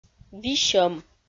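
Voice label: "висьӧм", Komi, female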